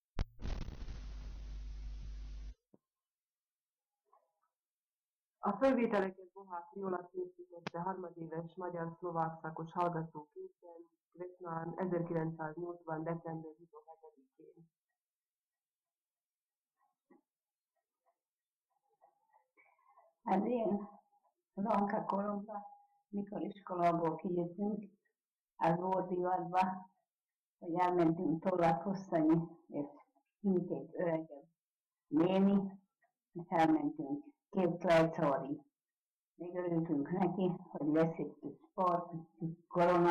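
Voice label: dialect collection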